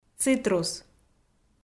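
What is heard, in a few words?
"цитрус", Komi, female; kpv audio dict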